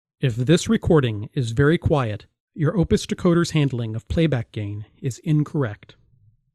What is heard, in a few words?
correctness gain loud speech